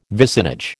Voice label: "vicinage", American